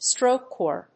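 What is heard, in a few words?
"stroke oar", English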